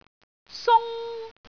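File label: "sung1", Cantonese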